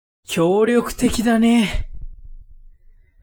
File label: man